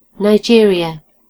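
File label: "Nigeria", British English; gcompris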